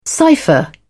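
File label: "cypher", British English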